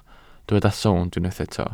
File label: "sownd", Welsh